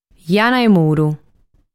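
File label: "Jana De Muru", Sardinian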